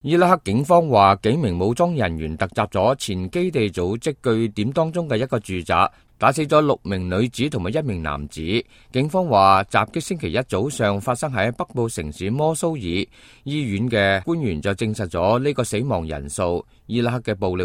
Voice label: cantonese, news